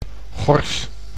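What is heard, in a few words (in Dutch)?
"gors", Dutch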